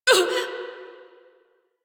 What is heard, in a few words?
Pain, Female; Railjack Crew; Cephalon Suda